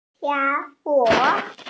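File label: "tiger", Mandarin, hearing-impaired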